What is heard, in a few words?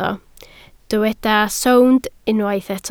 "sownd", Welsh